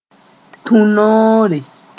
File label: "tũ-noore", moore